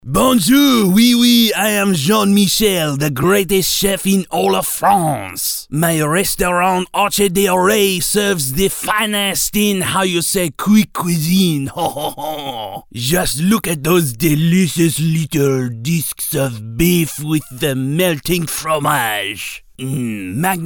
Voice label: Cartoonishly Stereotypical French Chef